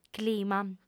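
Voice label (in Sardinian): "clima", female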